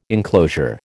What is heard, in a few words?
"enclosure", American